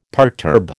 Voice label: "perturb", American

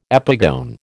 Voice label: "epigone", American

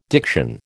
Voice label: "diction", American

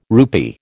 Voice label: "rupee", American

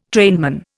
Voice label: "trainman", Indian

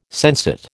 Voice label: "sensate", American

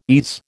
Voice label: "ease", American

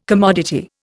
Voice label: "commodity", Indian

